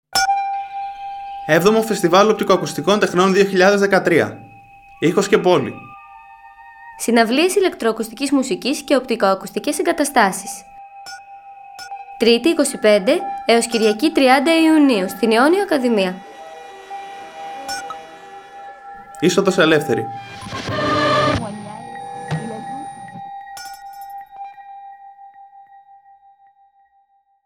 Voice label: radio Spot